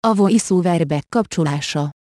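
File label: Hungarian VoiceOver On; EFI Audio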